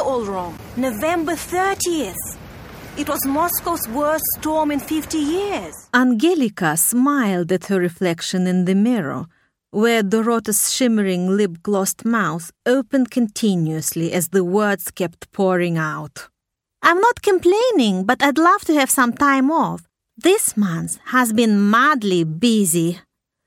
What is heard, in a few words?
Russian English